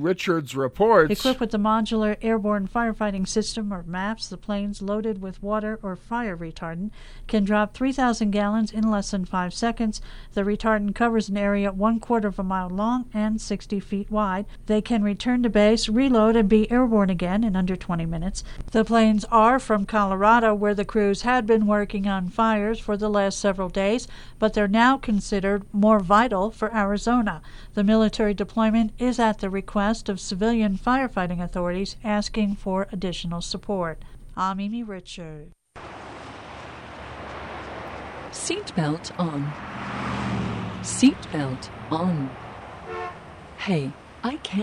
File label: radio news; wyoming